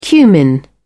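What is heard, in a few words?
"cumin", British English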